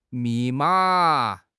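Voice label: "mi4 ma3", Mandarin Chinese; HSK; HSK4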